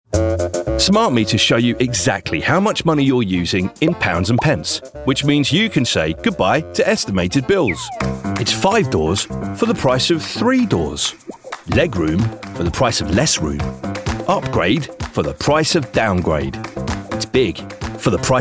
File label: Commercial